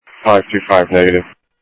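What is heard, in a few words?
Sheriff Lake Patrol, scanner audio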